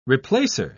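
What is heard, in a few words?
"replacer", British English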